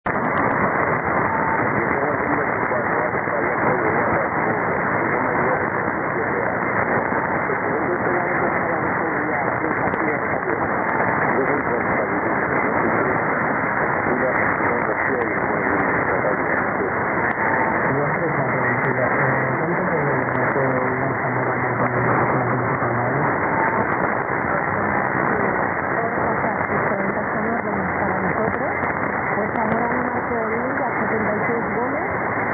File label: Radio Nacional, Majadahonda